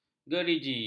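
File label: "gerigi", Malay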